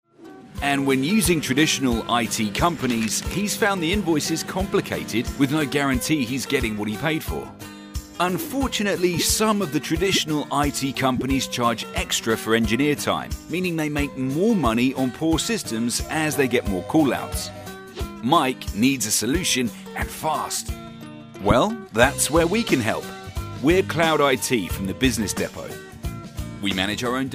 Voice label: Explainer Video Classic

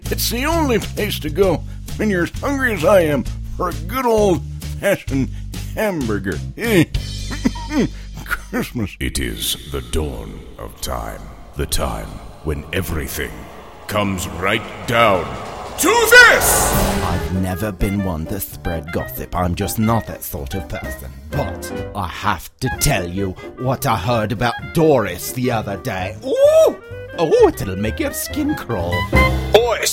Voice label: Character

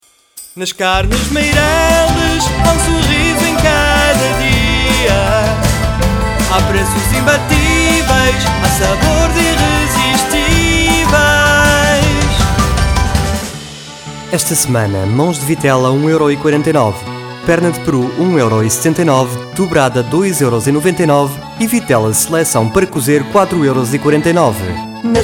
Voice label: promo radio jingle

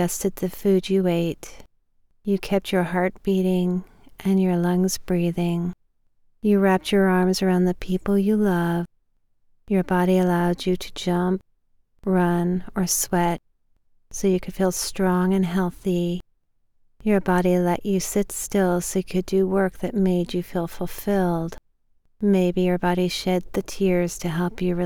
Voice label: sleep meditation

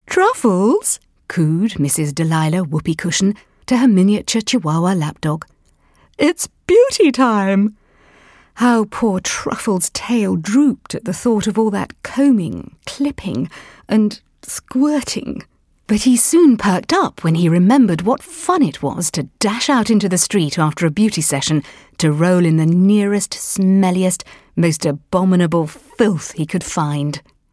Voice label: Children s audio book

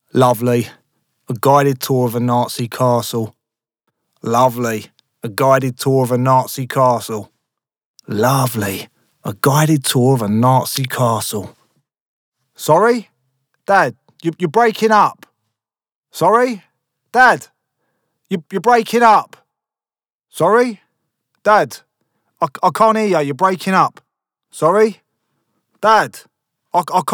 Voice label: 1930s video game guy reads

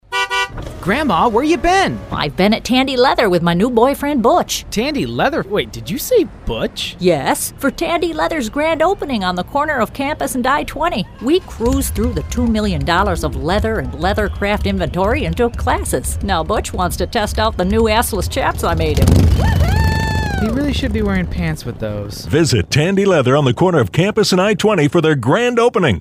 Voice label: Grandma

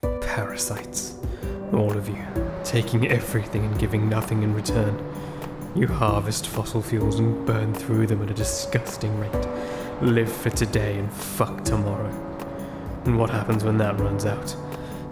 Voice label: Dramatic

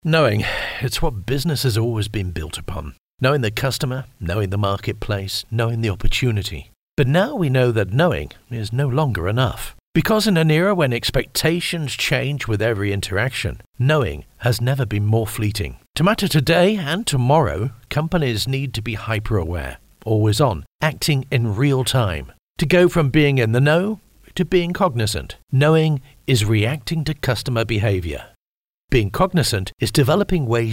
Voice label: Corporate

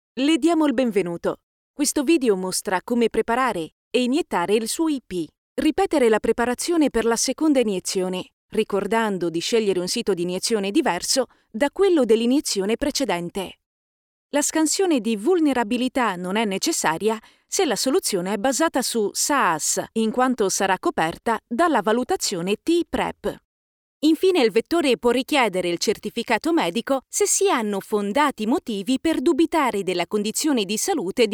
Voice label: training e-learning audioguida

